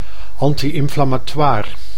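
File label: "anti-inflammatoir", Dutch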